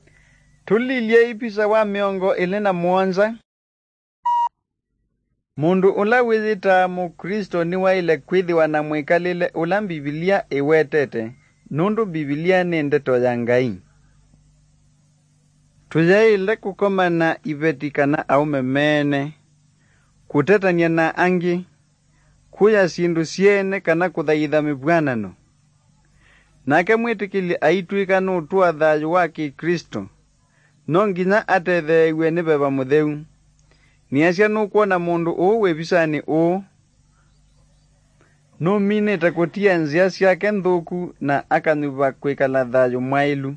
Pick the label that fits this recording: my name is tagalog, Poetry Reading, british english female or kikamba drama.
kikamba drama